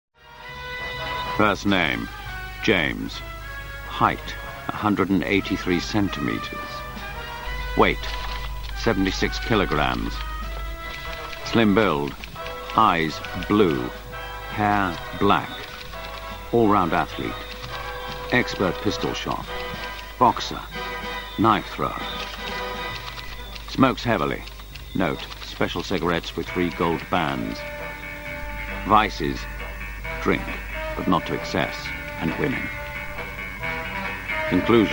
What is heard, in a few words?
Narration; 007; Vocal Point